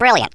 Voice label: "Brilliant", Australian; Worms Armageddon